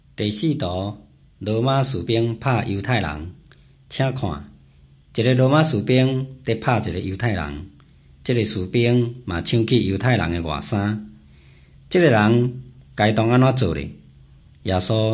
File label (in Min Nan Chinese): taiwanese, drama